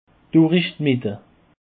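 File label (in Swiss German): "durich d mitte", Alsatian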